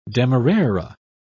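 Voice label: "demerara", British English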